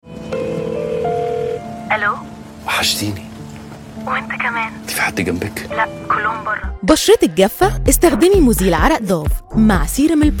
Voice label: Egyptian Commercial; Egyptian Commercial DemoReel 2025